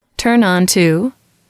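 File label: "turn on", English, female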